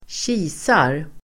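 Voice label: "kisar", Swedish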